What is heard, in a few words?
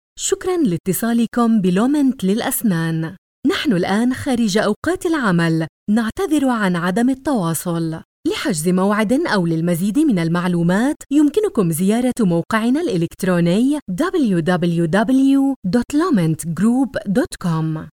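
Arabic, female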